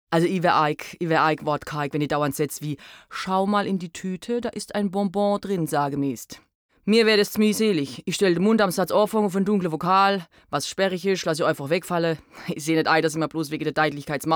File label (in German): dialekt